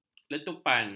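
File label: "letupan", Malay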